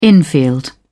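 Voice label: "infield", British English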